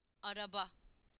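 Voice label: "car", Turkish; PictureDictionary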